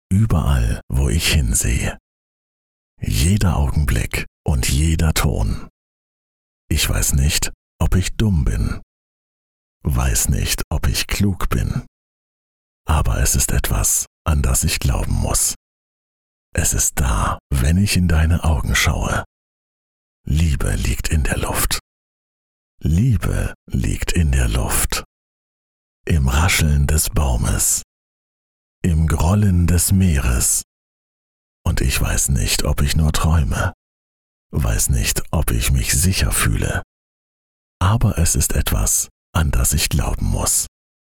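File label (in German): tief andächtig; LOFT VOICES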